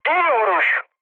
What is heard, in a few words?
bomb success, mood med, speech tur; War Thunder